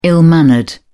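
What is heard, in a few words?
"ill-mannered", English, British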